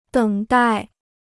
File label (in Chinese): "等待", Chinese